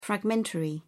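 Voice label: "fragmentary", English, British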